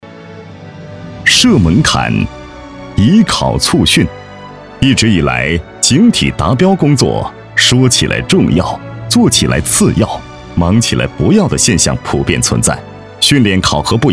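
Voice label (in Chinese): 高端男政府宣传大气沉稳; 公安; 高端男1-政府宣传--公安（大气沉稳）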